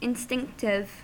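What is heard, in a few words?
"instinctive", US English; pronunciations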